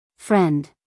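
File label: "friend", American English, female; everyone-can-use-english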